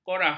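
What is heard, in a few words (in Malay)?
"korah", Malay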